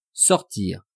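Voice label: "sortir", French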